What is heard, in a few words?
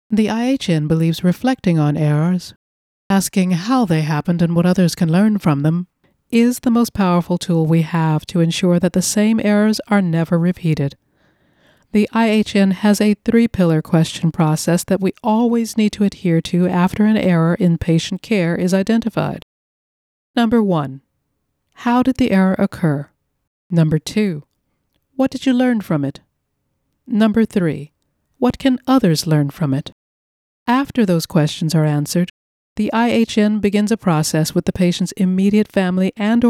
Voice Over Education Healthcare